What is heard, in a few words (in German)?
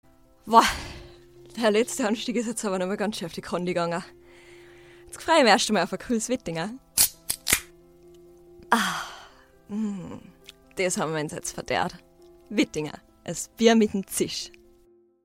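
österreichisch Werbung Bier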